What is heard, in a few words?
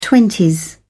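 "twenties", English, British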